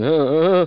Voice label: scientist fear ehheeh; half-life